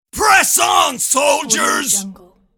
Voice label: male voice battle cry press on soldiers